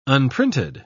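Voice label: "unprinted", British English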